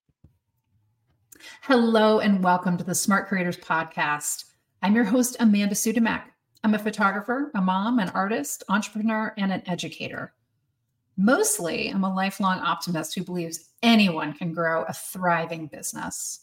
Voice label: trailer